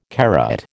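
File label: "carat", American English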